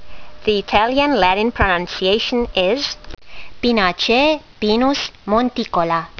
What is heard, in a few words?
latin; Pinus monticola